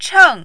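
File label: "cheng" tone 4, Mandarin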